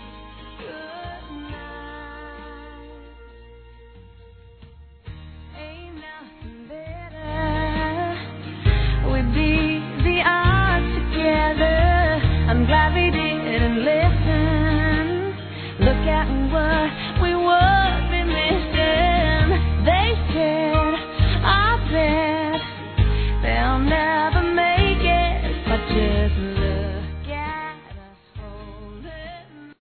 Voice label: BOA NOITE ROMANTICO, masc; Bom dia boa tarde boa noite

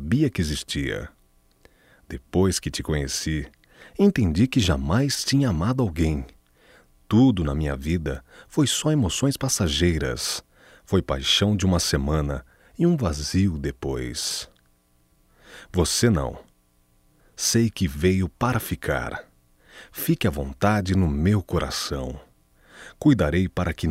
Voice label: O Amor Masculino, Só Voz; Telemensagens; MOMENTOS DE AMOR

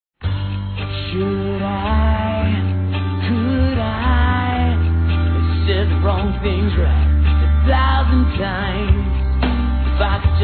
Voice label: Aniversáriante DISTANTE Feminino; Dia Dos namorados; Telemensagens 2017

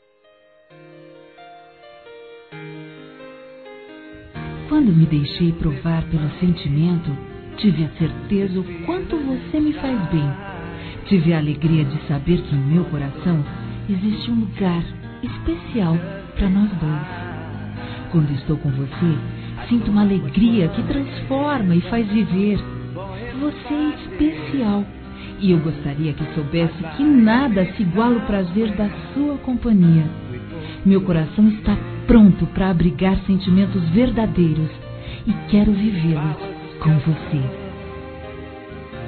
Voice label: Declaração Romântica Simples Feminino; Telemensagens; Declaração de amor; Telemensagens 2017

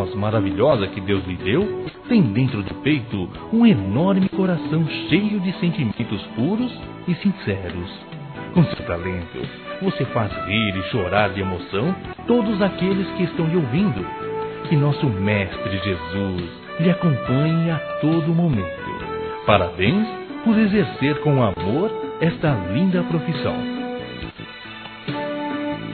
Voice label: LOCUTOR M; Homenagens; masc

